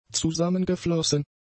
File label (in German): "zusammengeflossen", German; adjectives